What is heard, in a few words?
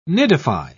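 "nidify", British English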